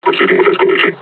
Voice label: taunt, combine charger; Half Life Alyx

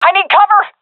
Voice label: request cover me, seal fem; vo; Counter Strike Model Pack; CS:GO

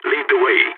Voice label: following friend, balkan; vo

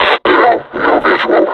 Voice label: reconnoiter search, vo combine suppressor; Half Life Alyx

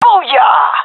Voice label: cheer, vo fem; agents; Source Model Packs; Counter Strike Model Pack; CS2

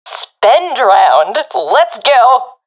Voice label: request spend, professional fem; vo; Counter Strike Model Pack; CS:GO

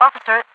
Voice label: officer at, radio voice; Half Life 2

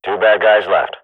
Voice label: radio bot kill count; Counter Strike Model Pack; CS:GO

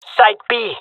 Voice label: jungle male; player vo; Counter Strike Model Pack; CS:GO

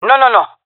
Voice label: negative, fem; player vo; Counter Strike Model Pack; CS:GO

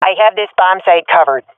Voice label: guarding bombsite, swat fem; vo; Counter Strike Model Pack; CS:GO

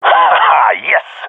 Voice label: cheer, jungle male epic; agents

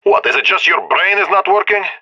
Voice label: scared; Counter Strike; CS:GO; leet epic

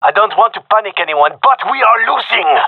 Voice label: round losing, gendarmerie male; vo; agents

